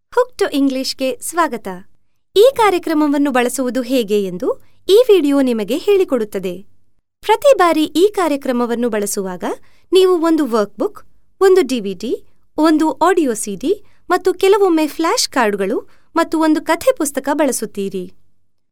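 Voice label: Kannada General; GoLocalise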